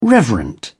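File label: "reverent", British English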